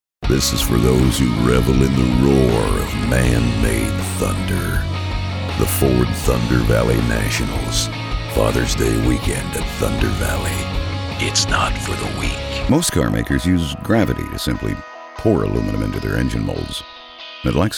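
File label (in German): American English Werbung Rau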